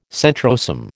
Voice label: "centrosome", American